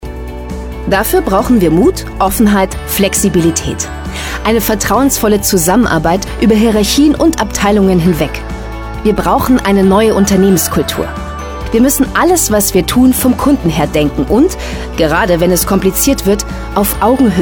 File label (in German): Imagefilm Jung; Sparkasse